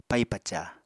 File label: "pai-paca", Apatani